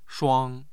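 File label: "shuang1", Mandarin Chinese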